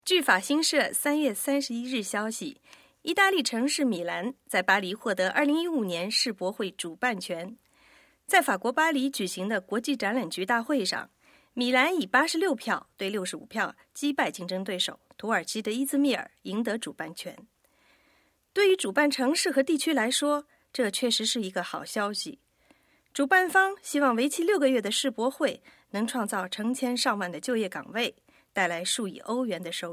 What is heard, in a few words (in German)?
China W